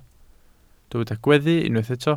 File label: "gweddu", Welsh